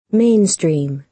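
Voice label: "mainstream", American English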